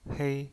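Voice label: "hei", Mandarin Chinese, first tone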